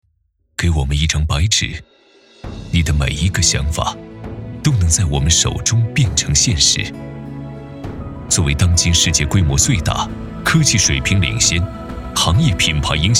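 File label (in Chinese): 广告类短广告; 中国电建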